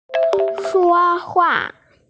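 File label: "shuohua", Mandarin Chinese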